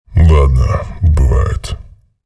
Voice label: chatter negative; visor; respawn

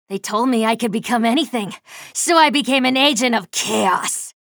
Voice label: chatter neutral; slash

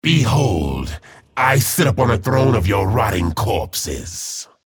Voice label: chatter positive; frag

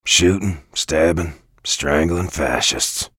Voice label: menu chatter; bj blazkowicz; frag

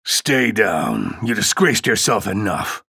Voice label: chatter positive; ranger; frag

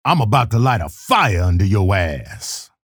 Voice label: chatter positive; keel; frag

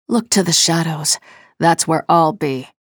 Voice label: chatter neutral; nyx

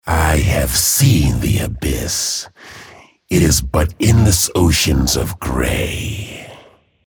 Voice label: chatter neutral

